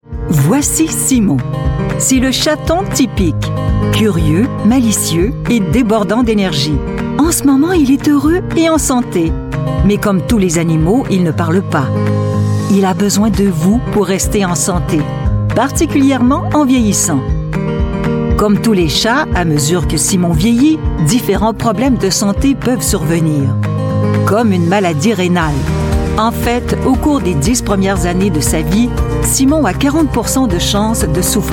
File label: french voice over female narrator